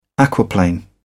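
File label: "aquaplane", British English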